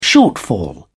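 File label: "shortfall", British English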